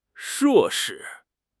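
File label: "shuo4 shi4", Mandarin Chinese; HSK4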